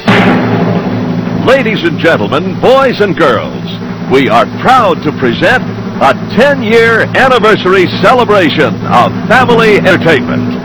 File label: Announcement